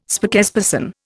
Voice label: "spokesperson", Indian

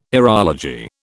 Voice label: "aerology", British

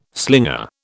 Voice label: "slinger", British

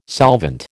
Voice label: "solvent", American

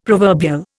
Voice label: "proverbial", Indian; Dictionary

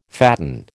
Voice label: "fatten", American

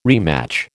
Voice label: "rematch", American

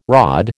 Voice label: "rod", American

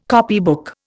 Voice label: "copybook", Indian

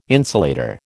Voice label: "insulator", American

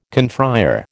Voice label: "confrÊre", American

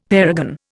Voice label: "parergon", Indian; Dictionary

